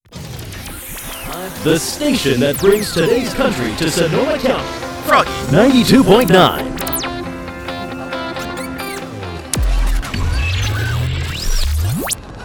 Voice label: Radio Imaging